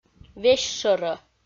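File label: "весьшӧрӧ", Komi, female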